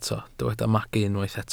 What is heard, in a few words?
"magu", Welsh